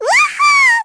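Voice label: Vox Happy, Korean; Lilia; kingsraid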